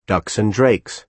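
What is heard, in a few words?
"ducks and drakes", American English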